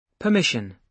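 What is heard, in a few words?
"permission", British English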